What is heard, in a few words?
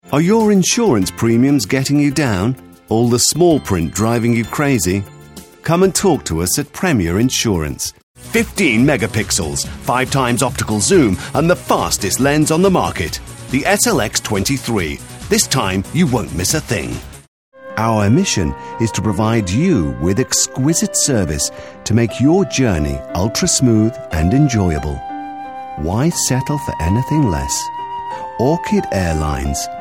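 British, TV commercial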